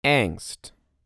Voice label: "Angst", US

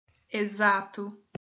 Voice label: "exato", portuguese

